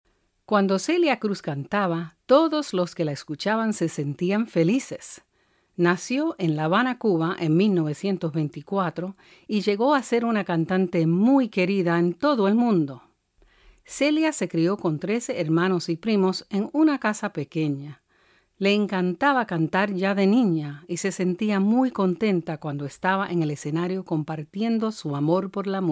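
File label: Spanish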